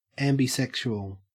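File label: "ambisexual", Australian English; pronunciations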